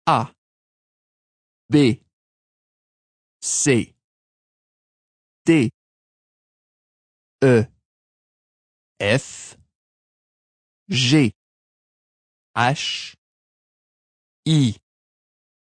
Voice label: Alphabet French, Male voice, French, alphabet, A-Zed, speaking; human voice; Talking; VoiceBox